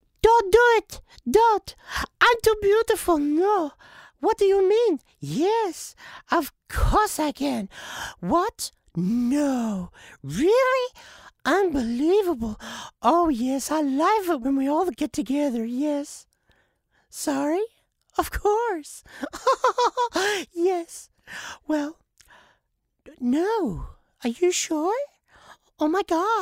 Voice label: Female Voice